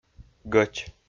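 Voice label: "гӧч", Komi, male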